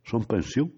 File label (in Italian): bergamasco; [Trad.: Sono in pensione]